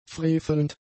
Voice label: "frevelnd", German; adjectives